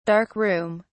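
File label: "dark room", British English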